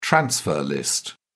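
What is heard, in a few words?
"transfer list", British English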